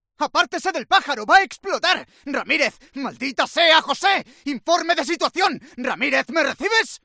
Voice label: MALE, videogames